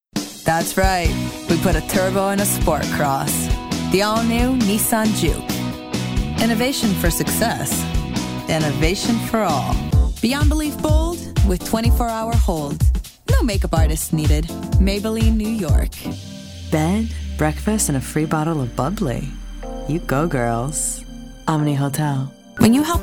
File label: english us and canada female commercial